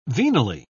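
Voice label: "venally", British English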